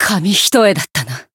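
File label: win barely, Japanese; milla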